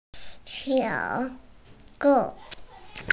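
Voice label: "shé tou", Mandarin Chinese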